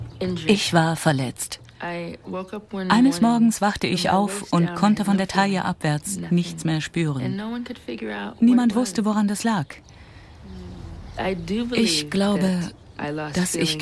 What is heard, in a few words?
Voice over Yoga; ARTE